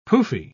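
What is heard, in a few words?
"pouffe", British English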